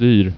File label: "dyr", Swedish